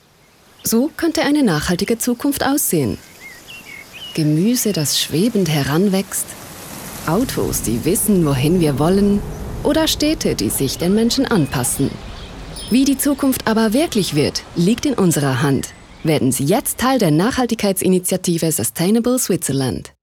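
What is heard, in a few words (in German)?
OFF CH DE, Swiss German voice-over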